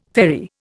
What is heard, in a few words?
"ferry", Indian